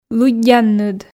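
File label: "лыддянныд", Komi, female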